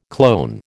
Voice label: "clone", American

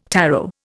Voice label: "tarot", Indian

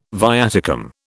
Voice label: "viaticum", British

